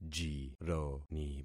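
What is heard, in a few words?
"jironi", concatenated syllables; Affixing experiment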